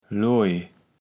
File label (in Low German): "Loij", kaevels platt